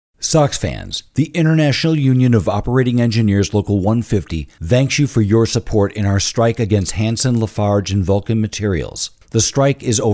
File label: radio ads